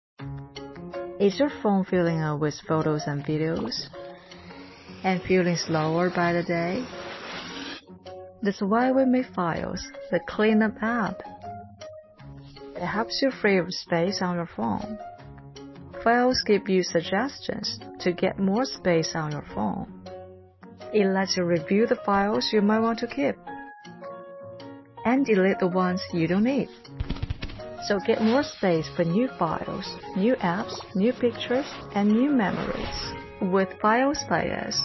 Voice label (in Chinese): 英语手机图文管理广告